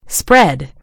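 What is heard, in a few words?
"spread", British English; gcompris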